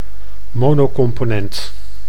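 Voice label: "monocomponent", Dutch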